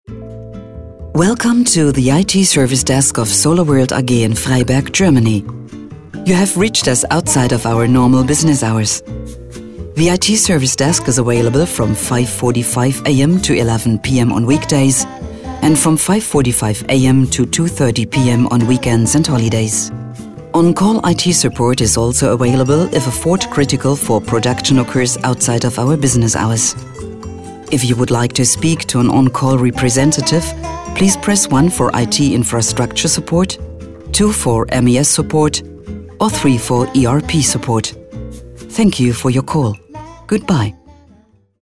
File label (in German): englisch; weibliche sprecherin fuer voice-over